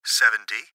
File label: Gps Voice, Male